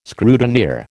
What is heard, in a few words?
"scrutineer", American